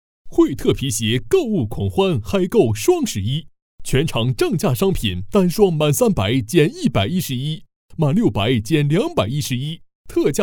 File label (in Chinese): 男 广告促销 皮鞋; 惠特